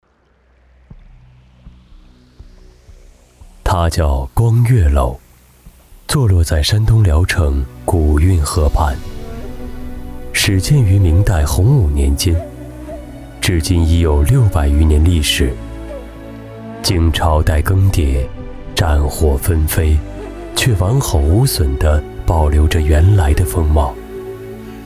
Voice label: 纪录片; 光岳楼

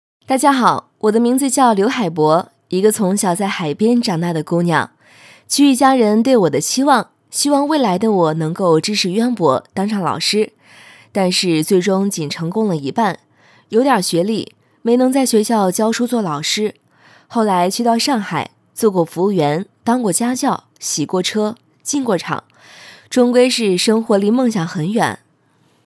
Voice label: 旁白 素人感 讲话

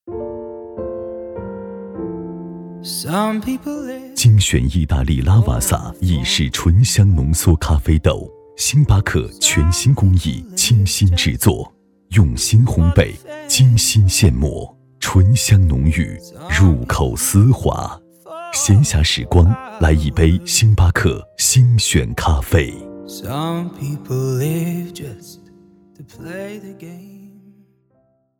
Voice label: 广告 咖啡; 星巴克星选咖啡

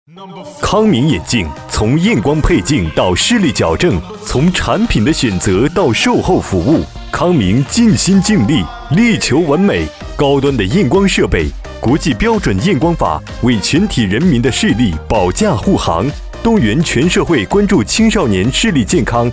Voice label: 促销 浑厚激昂 眼镜

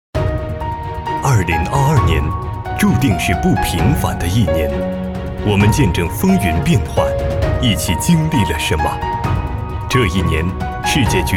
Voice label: 旁白 磁性质感; 局势

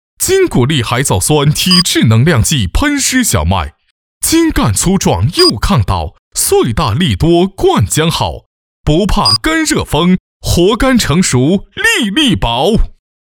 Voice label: 农药促销 强劲 有力

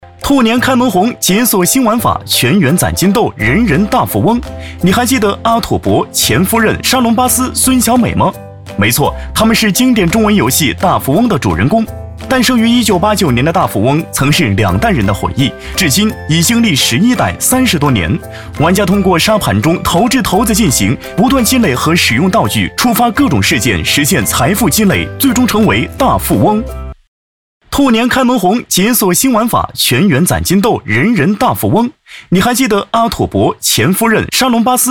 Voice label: 轻快; 大富翁

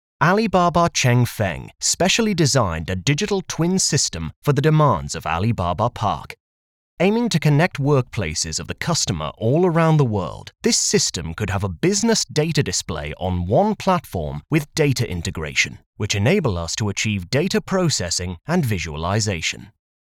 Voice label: 外籍 沉稳解说

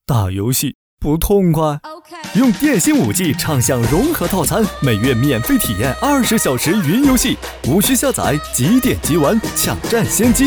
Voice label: 广告 年轻 活力 电信

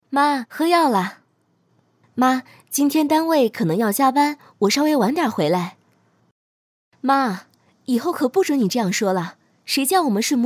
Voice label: 角色 人物 女儿 28岁

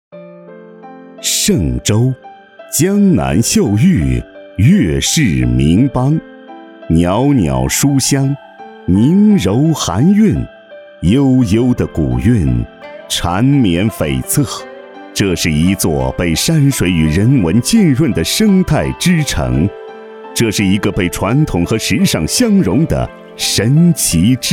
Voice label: 抒情城市宣传 山水; 山水嵊州

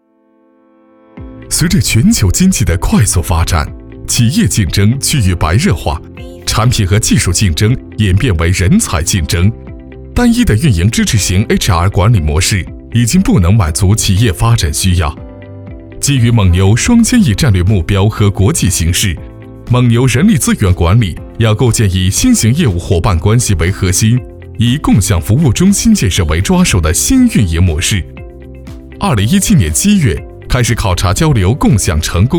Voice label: 企业科技 时尚 轻快

